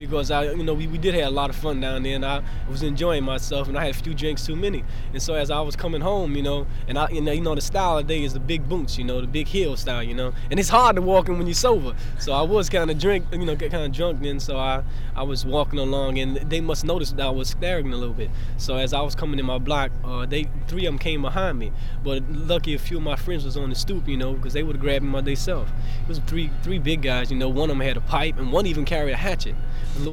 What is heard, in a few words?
New York interviews